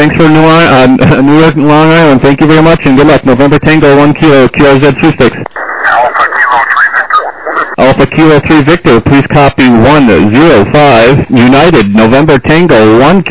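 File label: lower sideband 7MHz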